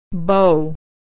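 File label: "bow", American English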